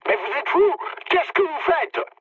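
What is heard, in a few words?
player killed ally, mood medium, French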